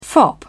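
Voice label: "fop", en, uk British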